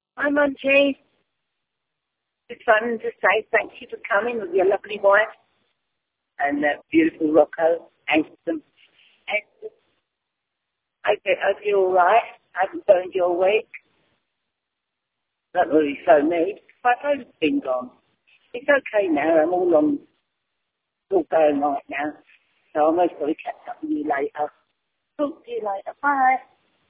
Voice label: voicemail